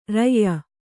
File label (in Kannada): "ರೂಯಿ", Kannada